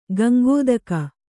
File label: "ಗಂಗೋದಕ", kannada